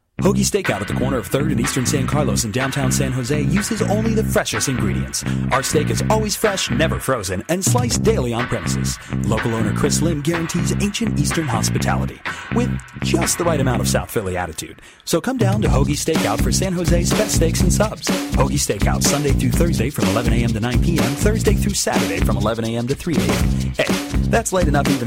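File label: US, commercial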